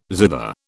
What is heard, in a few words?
"zither", British